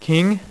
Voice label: "king", dealer's speech; PowerPOKER Demo